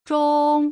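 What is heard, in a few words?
"zhong", Mandarin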